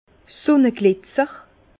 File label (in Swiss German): "sunneglitzer", Bas Rhin; vin